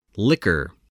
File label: "liquor", spelling